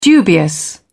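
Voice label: "dubious", British English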